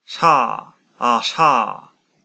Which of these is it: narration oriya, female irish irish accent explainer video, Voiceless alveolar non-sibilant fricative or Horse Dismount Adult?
Voiceless alveolar non-sibilant fricative